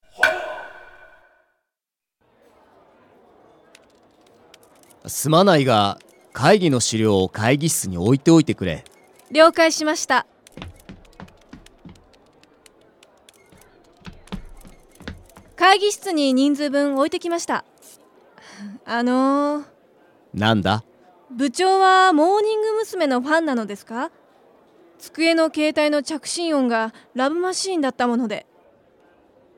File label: dialog; JapanesePod101; Beginner Season 1